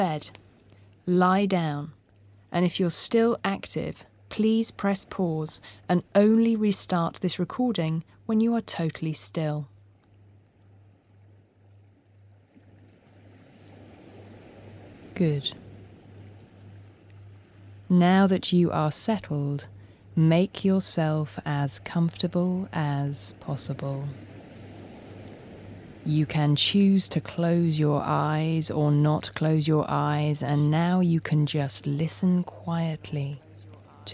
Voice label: Deep Sleeptastic Hypnosis